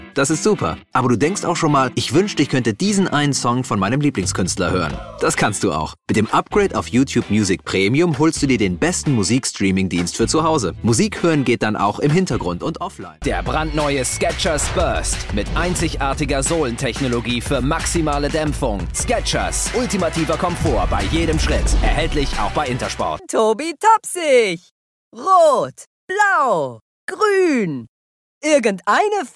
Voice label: German

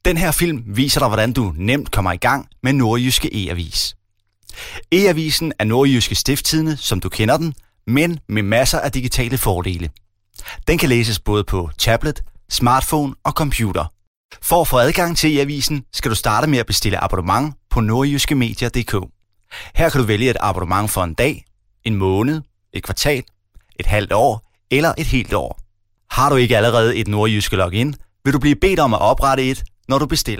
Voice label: Danish; Scandinavian, Male, Home Studio, 20s-30s

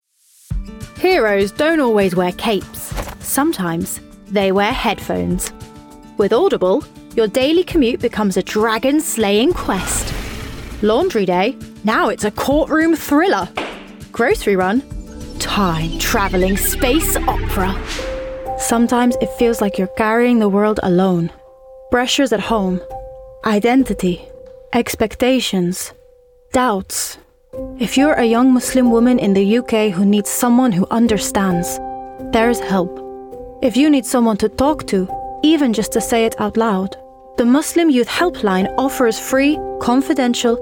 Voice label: Commercials, 20s-30s. Female. Leicester.; voiceover

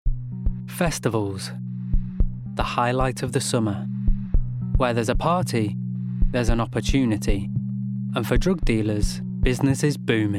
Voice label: Documentary Narration

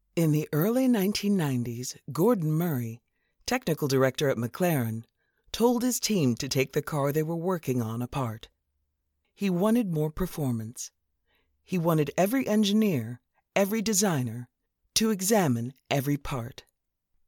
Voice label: Home Studio Read

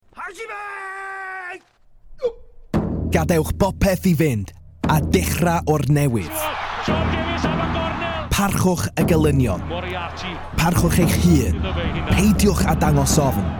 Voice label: Welsh; Male; 20s-30s

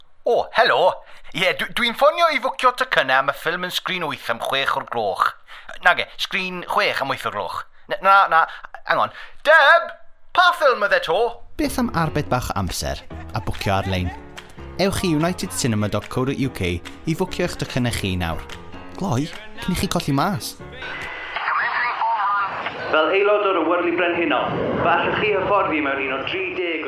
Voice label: Welsh

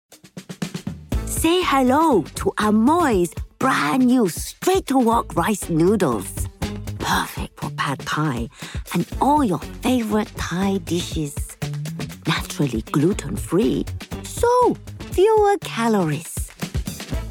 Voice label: Chinese; 40s-60s; Female; British Asian/RP; Chinese Accent

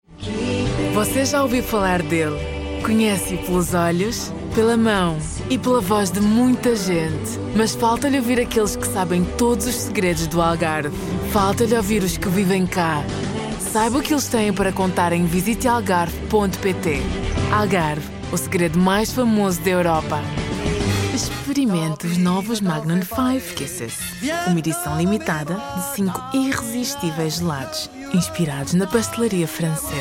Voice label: Portuguese

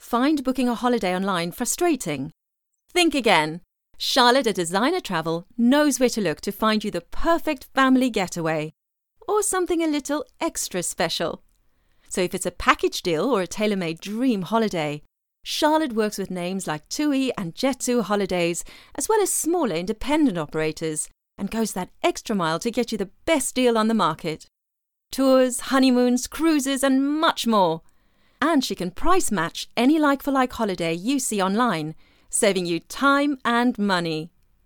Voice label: Commercials, regional accents; 20s-30s. Female. Studio. South African.

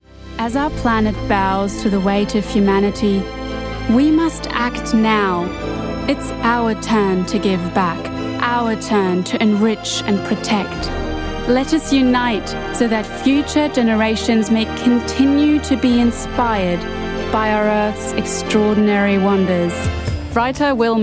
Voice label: English

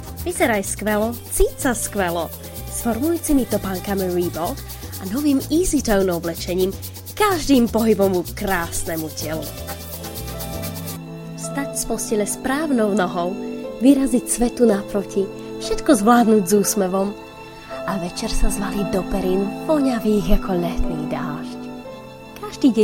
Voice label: Slovak

